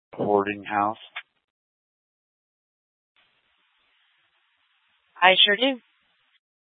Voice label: Fire Departments Mesa Simulcast; TOPAZ

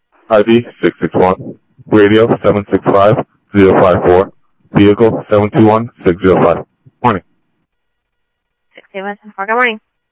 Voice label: Sheriff Central, scanner audio; ThompsonPeakScot; MCSO Central

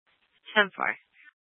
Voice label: Tempe PD, scanner; Rgnl Wireless Co-op (RWC)

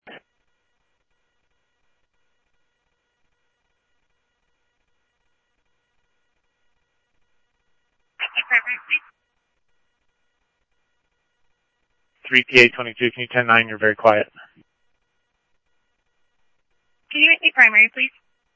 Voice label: Mesa Simulcast, MESA PD, scanner audio; 2 Centrl; MesaPoliceDep

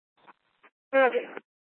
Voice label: Court Security Dispatch, scanner

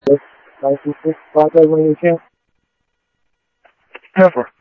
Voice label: Sheriff, scanner; MCSO - WEST Sheriff-WestCnty; ThompsonPeakScot

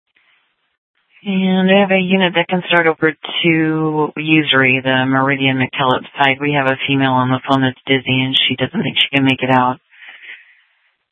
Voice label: Sheriff, scanner P25

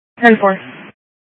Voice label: PD Dispatch

